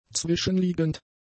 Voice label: "zwischenliegend", German; adjectives

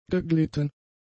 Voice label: "geglitten", german; adjectives

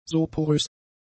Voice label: "soporös", german; adjectives

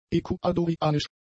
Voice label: "ekuadorianisch", german; adjectives

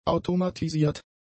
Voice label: "automatisiert", German; adjectives

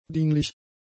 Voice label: "dienlich", german; adjectives

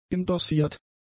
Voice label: "indossiert", German; adjectives

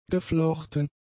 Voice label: "geflochten", German; adjectives

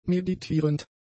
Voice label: "meditierend", German; adjectives

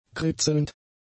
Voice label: "kritzelnd", German; adjectives

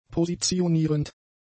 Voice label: "positionierend", German; adjectives

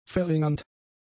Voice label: "verringernd", german; adjectives

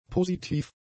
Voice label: "positiv", German; adjectives

